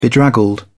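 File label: "bedraggled", English, British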